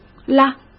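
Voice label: "啦", Mandarin Chinese; chinese-flashcards; hsk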